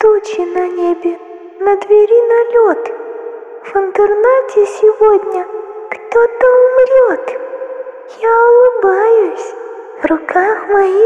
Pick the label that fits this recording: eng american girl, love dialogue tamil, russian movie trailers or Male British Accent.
russian movie trailers